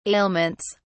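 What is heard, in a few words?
"ailments", British English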